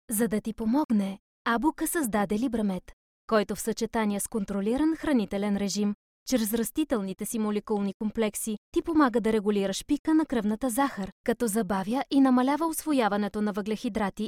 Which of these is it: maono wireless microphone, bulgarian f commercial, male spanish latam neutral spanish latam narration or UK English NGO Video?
bulgarian f commercial